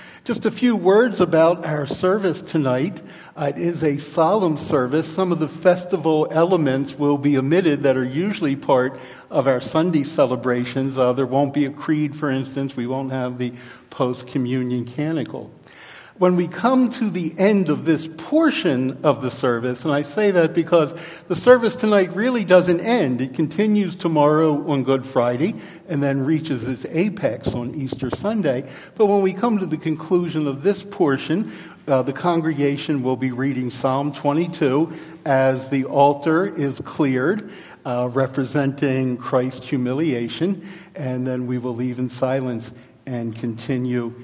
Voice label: Welcome and Announcements; Maundy Thursday